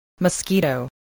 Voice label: "mosquito", voice